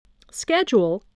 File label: "schedule", english spelling